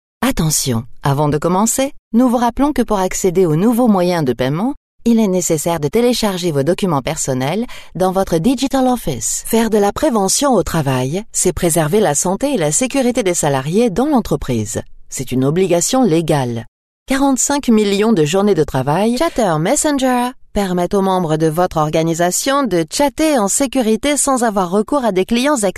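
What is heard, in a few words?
French, female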